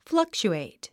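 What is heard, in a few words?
"fluctuate", English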